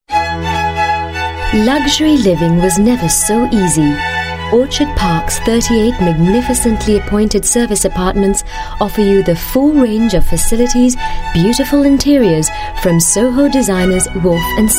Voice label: Premium, English Singaporean Female